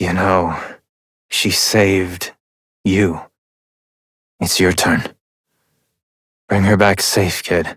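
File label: Adult, English; New War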